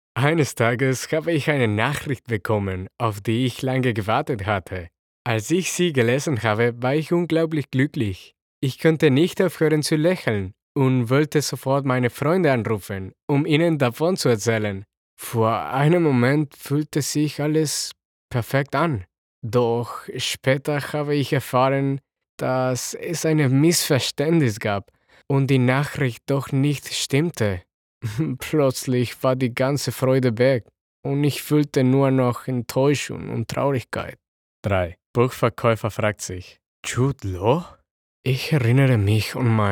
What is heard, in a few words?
German